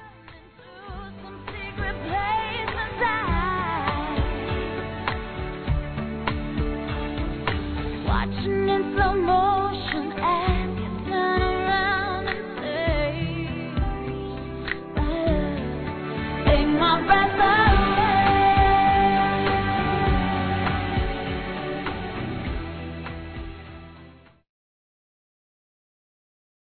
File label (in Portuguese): Não quero mais Brigar Feminino, Românticas; Telemensagens